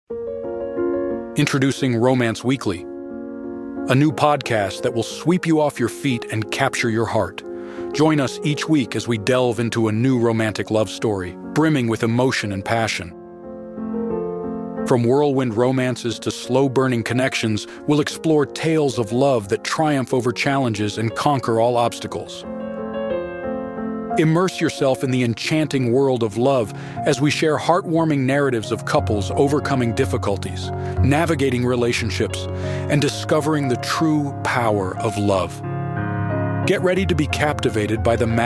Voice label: trailer promo; romance weekly